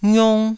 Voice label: "ngiung1", taiwanese syllables

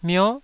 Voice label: "mio9", taiwanese

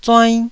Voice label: "zuainn1", taiwanese

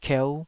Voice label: "kieu5", taiwanese syllable

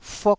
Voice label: "fuk1", taiwanese syllables

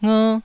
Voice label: "nger1", Taiwanese syllable

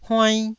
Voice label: "huainn1", Taiwanese syllable

